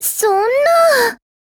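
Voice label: LOW MOOD, Japanese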